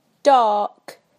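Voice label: "dark", British English; PictureDictionary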